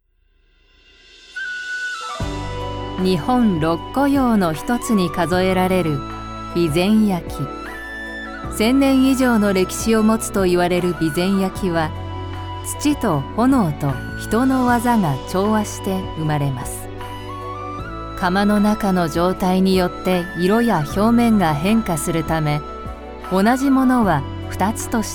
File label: japanese documentaries